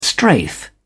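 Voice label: "strafe", British English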